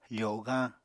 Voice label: "fogañ", Apatani

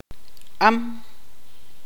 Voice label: tzutujil

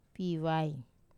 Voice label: "pivai", no, Wamesa

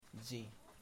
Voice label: "rain", Kamba

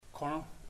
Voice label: plant, chamacoco; Puerto Diana

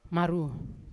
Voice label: "maru" rain, Yawa

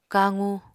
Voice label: "kaqu", apatani

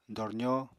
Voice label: "dorzó", Apatani

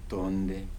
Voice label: "stars", Kibembe

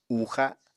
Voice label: "uuxa", Apatani

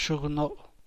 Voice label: "bshug noo", San Mateo Macuilxochitl Zapotec; fingernails; uñas de los dedos

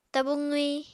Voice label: "tabu qwi", Apatani

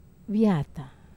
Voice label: "vata", Wamesa

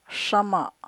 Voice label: "shama" mouth, Chukchansi

